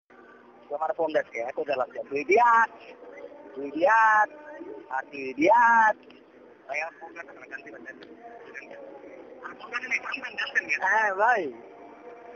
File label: Irritated Caller